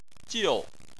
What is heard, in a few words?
"jiu4", Chinese